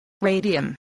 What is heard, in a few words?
"radium", english